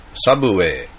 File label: "Subway", fast food restaurant